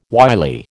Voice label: "wily", American